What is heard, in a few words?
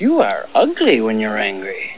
angry; spaceballs